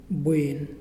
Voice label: "good", Muyuw; Lae Unitech

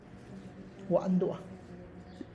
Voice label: I went to Oaxaca, Tlacochahuaya Zapotec; gua' Ndua'; (Spanish) Fuí a Oaxaca

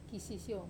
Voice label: "kasisiou", wamesa

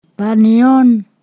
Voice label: "that long", Ponosakan

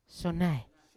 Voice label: "syonai" dont want, Yawa

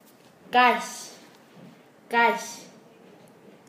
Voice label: Nasa Yuwe; hueco; paez

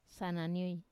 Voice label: "sananioi", spear, wamesa

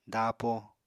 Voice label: "daapo", Apatani

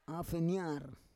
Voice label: "afenyar", Roon

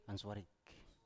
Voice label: "answarik", Biak

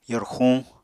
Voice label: "yorxuñ", Apatani

